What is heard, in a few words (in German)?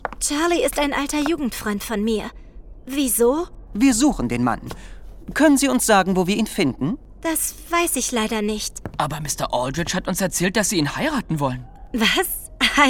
Hoerspiel; der junge Sherlock Holmes